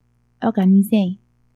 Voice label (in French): "Organisez !", french